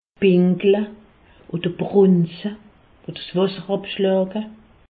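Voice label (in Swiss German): "pinkle", Alsatian; medecine; uriner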